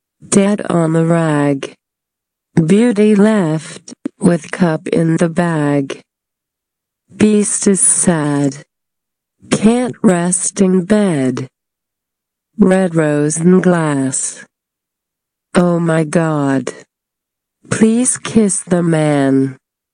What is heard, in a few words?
Slow